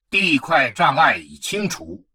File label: advisor notification tile blocker clear, chinese; Stellaris